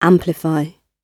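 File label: "amplify", British English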